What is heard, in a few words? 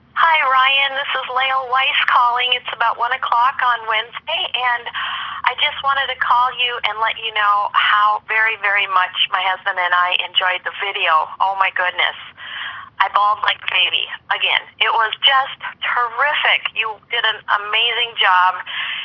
Voice Mail Thank You